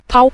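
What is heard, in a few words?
"tao", Mandarin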